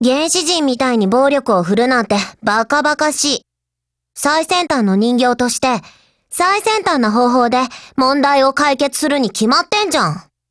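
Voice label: DIALOGUE, Japanese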